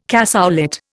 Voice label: "cassoulet", Indian

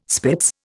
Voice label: "spitz", Indian

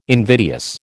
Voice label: "invidious", American

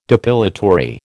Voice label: "depilatory", American

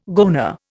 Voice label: "goanna", Indian

